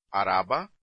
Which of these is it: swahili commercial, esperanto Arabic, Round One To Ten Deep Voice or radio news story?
esperanto Arabic